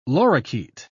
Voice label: "lorikeet", pronounce